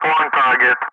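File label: "target", English; Ka-50; Wingman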